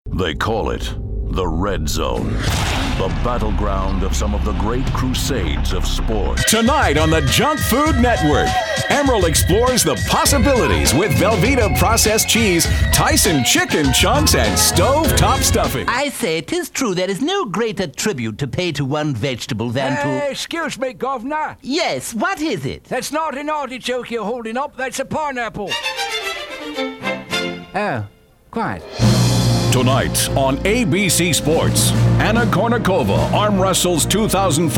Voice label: men commercial; Characters, comical, announcer.

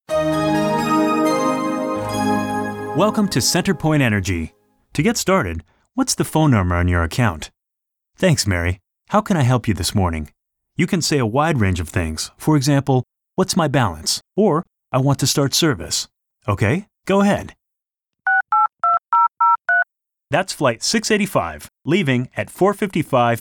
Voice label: men telephone; Conversational and relatable. Clear, upbeat, and calming.

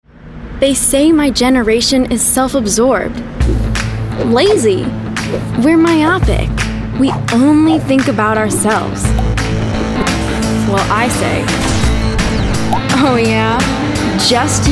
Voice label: kids commercial; Sporty, intelligent, and mature for her age.

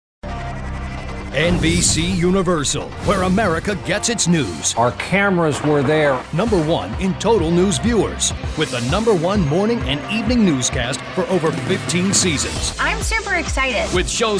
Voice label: men promos; Masculine voice from outdoorsman to next door.

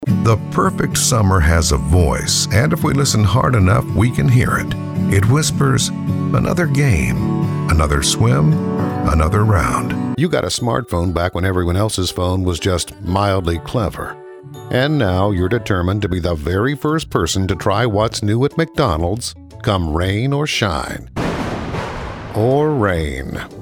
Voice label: men commercial; Warm to fuzzy, bigger voice.

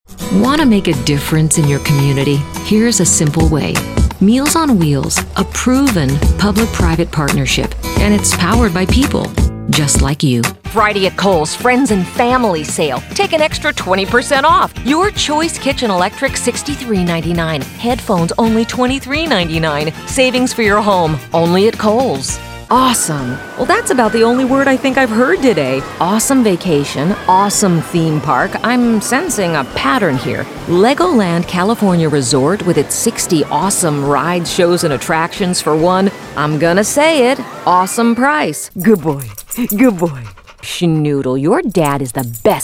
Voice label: women commercial; Compassionate and warm authority.

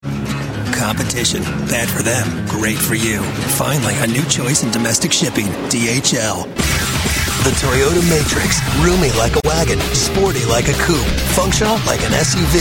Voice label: men commercial; Textured, hip, and unique.

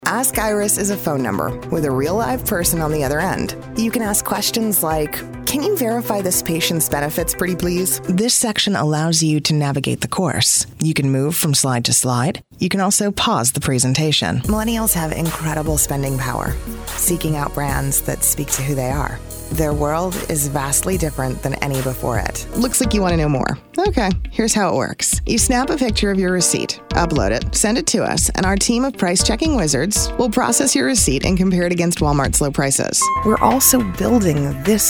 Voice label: women corporate; Textured sassy, smart, and smart voice.

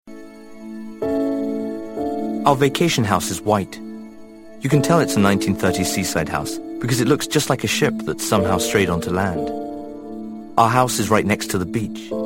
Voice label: men narration; Good 'ol chap, polished, young, great diction.

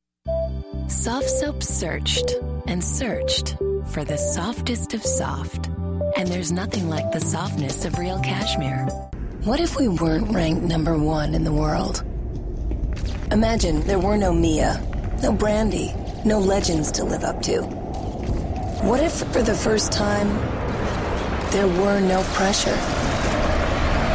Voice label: women commercial; Raspy, conversational, sexy, professional, and authoritative.

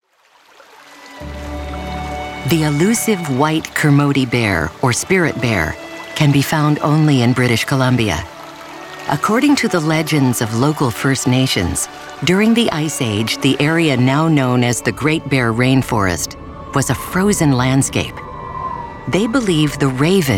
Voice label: women narration; Smooth, classy, deep, humorous and real....and did we mention movie trailers?

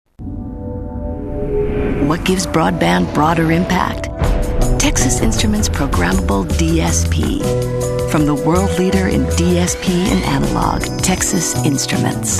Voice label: women commercial; Textured, warm, comedic, sexy, spokesperson, hip and cool, intelligent, authoritative, very versatile.

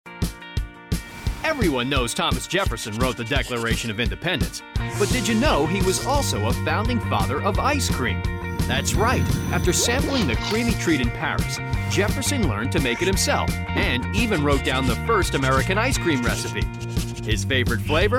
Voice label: men narration; Masculine voice from outdoorsman to next door.

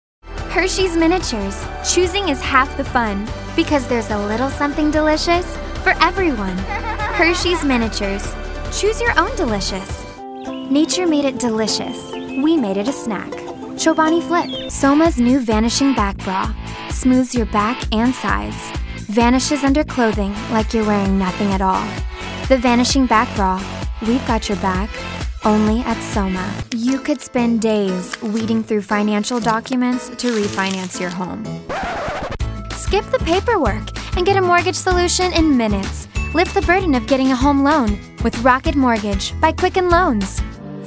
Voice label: women commercial; Young, fun, friendly, and sassy. Perky girl next door with a wide range of voices from conversational to character.

